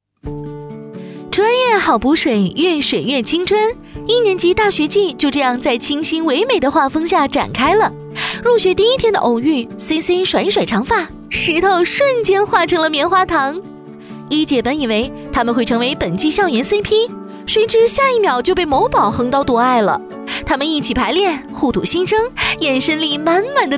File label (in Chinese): 宣传片 病毒; 一年级